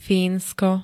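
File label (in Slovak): "Fínsko", Slovak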